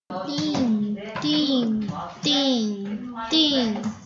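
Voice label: "ding", spoken word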